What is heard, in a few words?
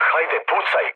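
Serbian attack position mood low, speech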